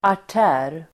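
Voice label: "artär", Swedish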